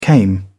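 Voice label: "came", British English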